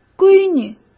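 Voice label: "闺女", Mandarin Chinese; hsk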